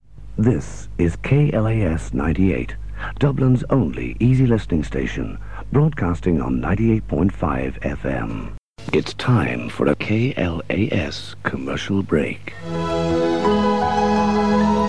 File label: jingles; KLAS